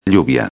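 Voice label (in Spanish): "lluvia", Spanish; ortografia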